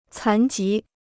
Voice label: "残疾", Chinese

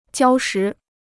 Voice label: "礁石", Chinese

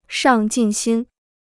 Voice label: "上进心", Chinese

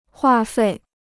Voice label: "话费", Chinese

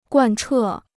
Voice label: "贯彻", Chinese

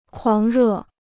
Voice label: "狂热", Chinese

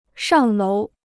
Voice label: "上楼", Chinese

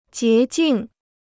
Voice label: "洁净", Chinese

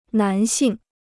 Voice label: "男性", Chinese; a male

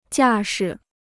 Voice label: "架势", Chinese

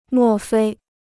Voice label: "莫非", Chinese

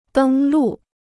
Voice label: "登陆", Chinese

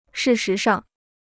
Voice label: "事实上", Chinese

